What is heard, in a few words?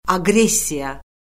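"aqressiya", Azerbaijani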